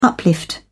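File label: "uplift", British English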